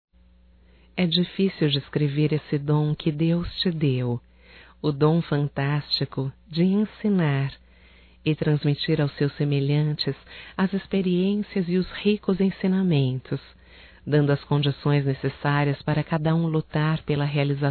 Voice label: Dia Professor Feminino; Datas Mistas; Telemensagens 2017

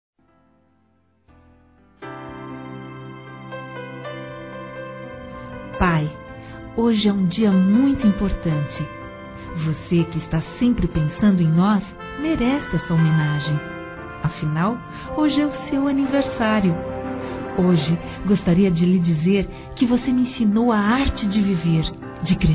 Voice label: Pai Feminino; Aniversários; Aniversários diversos

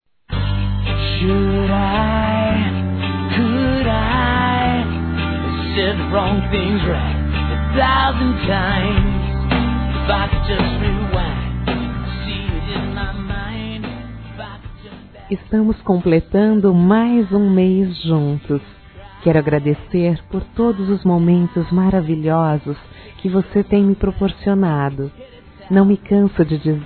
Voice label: ANIV DE NAMORO - F, Telemensagens, Dia Dos namorados, fem; Telemensagens 2017

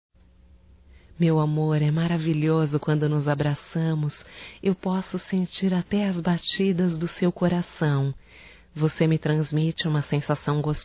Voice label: DIA DOS NAMORADOS, Só Voz, fem; Telemensagens; HOMENAGENS

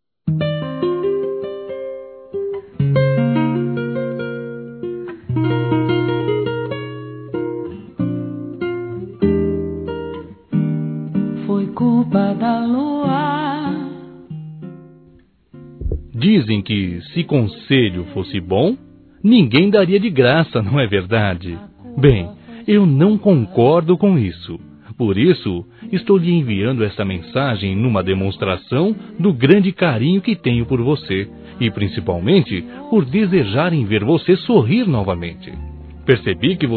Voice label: Mudar de Vida Masculino, Telemensagens; Otimismo

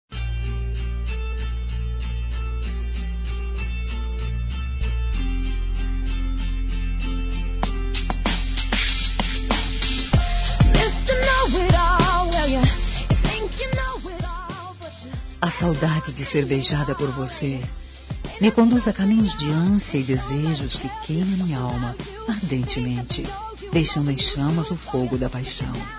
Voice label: Quero te Beijar Feminino; Telemensagens 2017; Românticas

